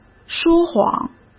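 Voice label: "说谎", Mandarin Chinese; hsk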